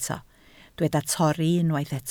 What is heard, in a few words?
"torri", Welsh